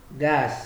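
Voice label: "gas", Nigerian Pidgin, male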